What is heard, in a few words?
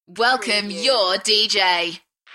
UK female; Welcome your dj; beanstalk audio